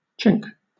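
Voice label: "chink", British English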